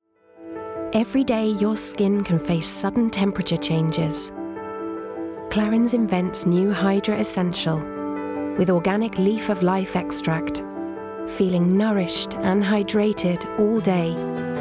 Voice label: English UK Commercial F; advertising voice over